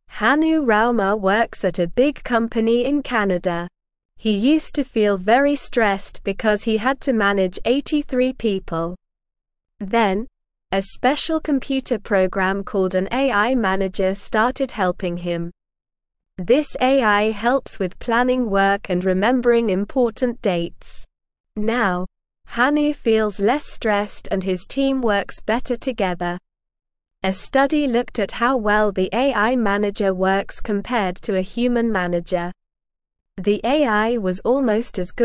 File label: English Newsroom Beginner SLOW Reading; AI Helps Workers Feel Less Stressed at Work